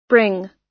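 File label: "bring", US English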